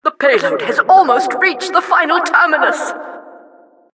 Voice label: announcer cart attacker final warning